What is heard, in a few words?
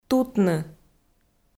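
"тутны", Komi, female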